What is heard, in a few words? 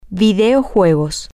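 video games, Spanish, female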